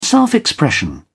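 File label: "self-expression", British English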